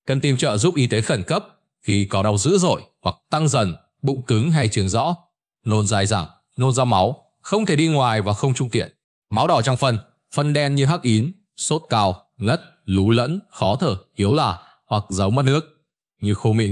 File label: narration; Vi Sao Bung Ban Reo Khi Doi; StickerMan